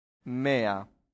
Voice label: "mea", Latin; santillana

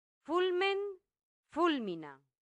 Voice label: "fulmen fulmina", latin; santillana

